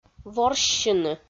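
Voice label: "ворссьыны", Komi, female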